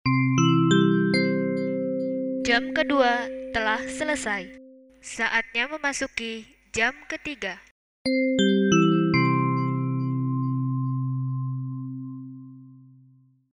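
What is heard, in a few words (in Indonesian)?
Indo masuk Jam, bel sekolah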